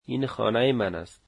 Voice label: this is my house, Dari